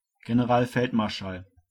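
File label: "Generalfeldmarschall" German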